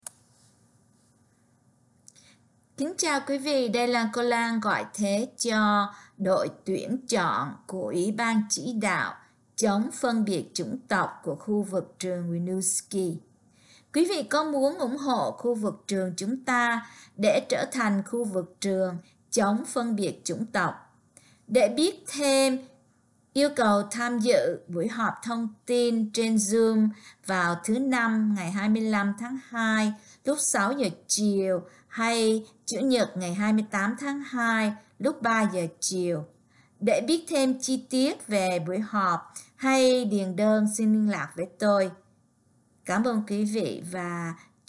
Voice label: Vietnamese; join Antiracism Comittee